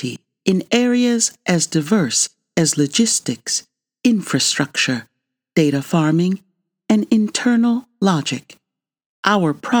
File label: Commercial Tech